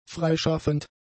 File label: "freischaffend", German; adjectives